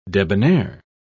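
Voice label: "debonaire", British English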